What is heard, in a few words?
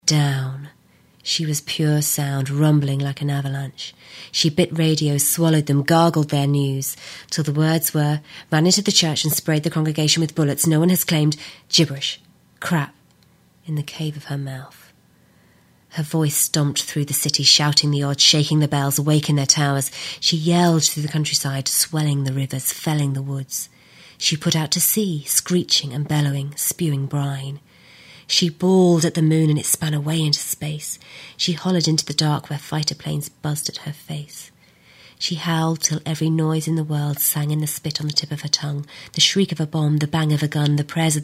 Loud second half